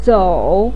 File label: "zǒu", voice pinyin